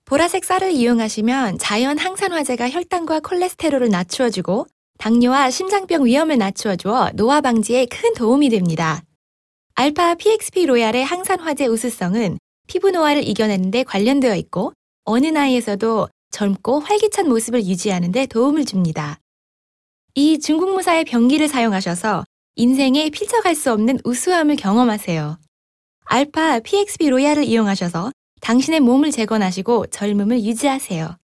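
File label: Korean Army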